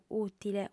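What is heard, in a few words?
"ùtile", Sardinian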